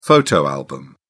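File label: "photo album", British English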